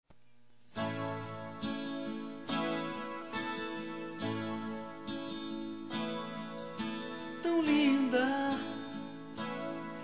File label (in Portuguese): Trilha AMIZADE, Gospel, fem; Telemensagens; Telemensagens 2017